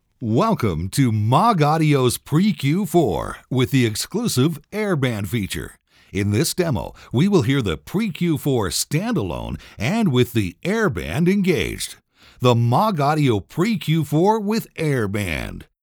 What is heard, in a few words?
Voice WITH AIR BAND at 20K Gauge ECM 87